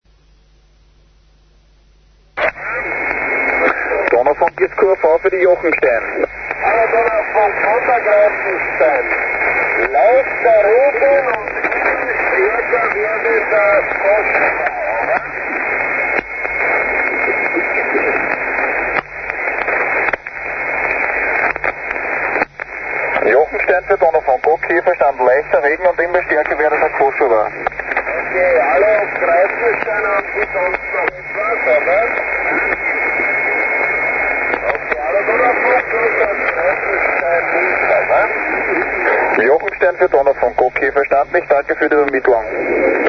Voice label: donaufunk wien usb; europe

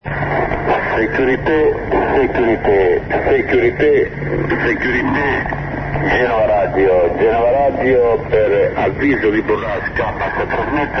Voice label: genova radio 2182 khz

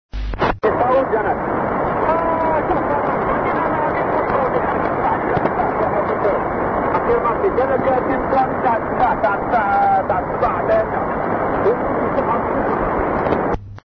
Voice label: meteo 9231 khz; hassi messaoud; djanet

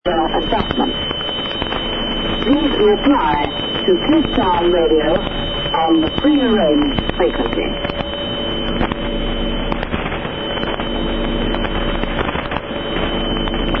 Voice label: usb call

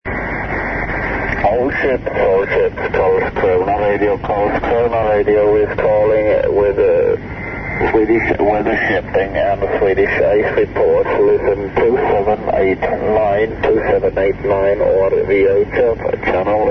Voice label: karlskrona radio 2182 khz; europe

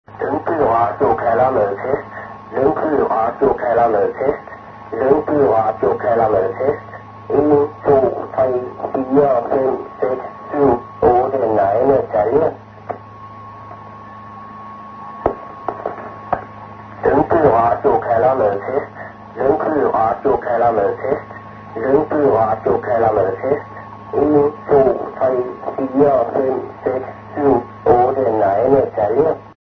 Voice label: lyngby radio; europe

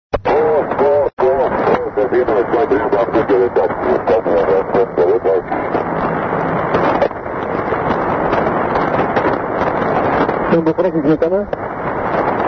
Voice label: kos air wkg athens 5637 khz

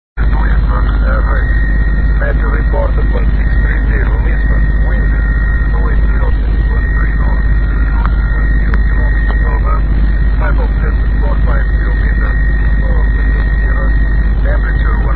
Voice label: lisboa volmet usb

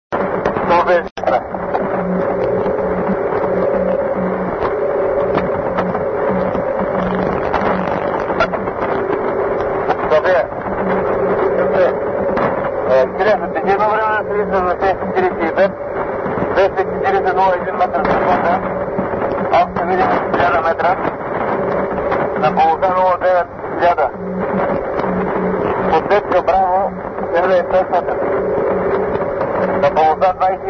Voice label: silistra clg sofia 5622 khz; europe

